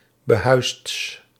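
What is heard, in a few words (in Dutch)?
"behuisds", Dutch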